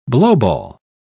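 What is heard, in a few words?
"blowball", British English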